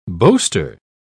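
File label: "boaster", British English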